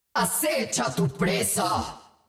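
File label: Latin American Spanish; 奥丽莎（守望先锋2）; 终极技能; 拉美地区; 西班牙语; ¡Acecha a tu presa!; 追踪你的猎物！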